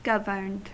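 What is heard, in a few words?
"governed", US English; pronunciations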